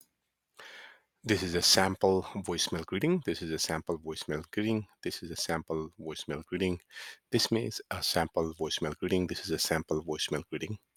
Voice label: ivr greeting